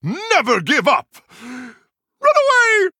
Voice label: Male Human Concede

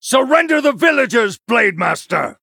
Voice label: Male Human; Uther

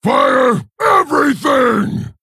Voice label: Male Orc Attack

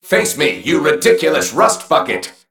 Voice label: Male Mech Play

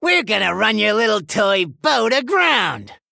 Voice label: Male Gnome; Scabbs; Mission5 Exchange

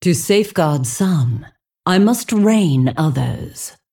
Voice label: Female Dragon Bark

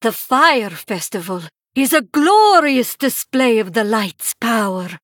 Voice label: Female Draenei fire festival

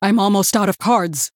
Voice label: Female Human; Cariel; Low Cards

